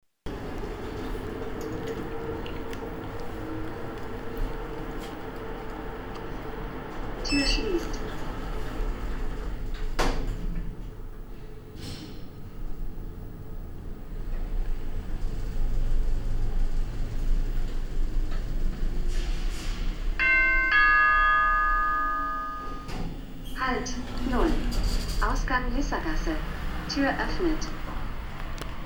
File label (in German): Lift Ansage; Lissagasse